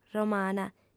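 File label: "romana", Sardinian, female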